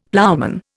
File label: "ploughman", Indian; Dictionary